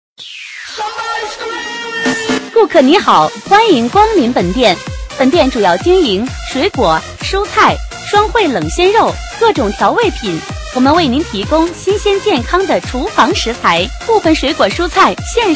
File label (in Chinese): 商场促销, 女